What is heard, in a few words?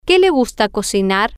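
whatDoYouLikeToCook, Spanish, female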